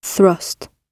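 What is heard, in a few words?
"thrust", British English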